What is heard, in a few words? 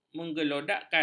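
"menggelodakkan", Malay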